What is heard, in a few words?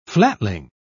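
"flatling", British English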